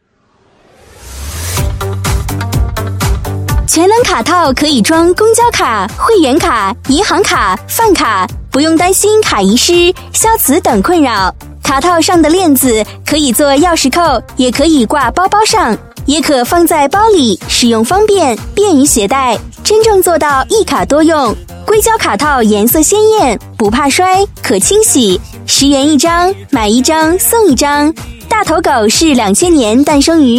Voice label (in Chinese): 女72 促销广告 促销 激情甜美, 国语女声配音 年轻